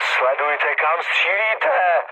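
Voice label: player friendly fire, Czech, mood med; War Thunder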